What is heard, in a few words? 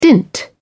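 "dint", British English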